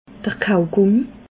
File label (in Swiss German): "kaujgummi", alsace